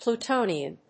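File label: "Plutonian", English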